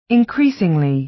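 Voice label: "increasingly", American English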